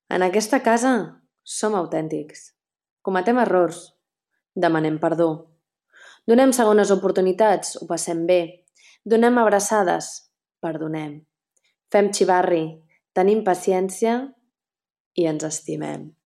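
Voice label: Catalan Narration; voice demos; GoLocalise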